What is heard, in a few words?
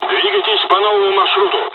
mission fly, dialogs russian; War Thunder